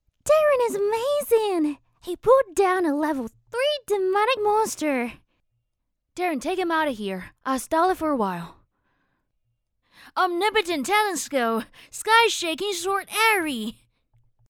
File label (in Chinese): 英女 女童 女播